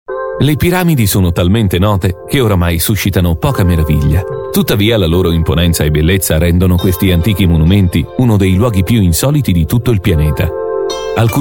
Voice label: italian voice over male documentary